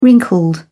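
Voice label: "wrinkled", English, British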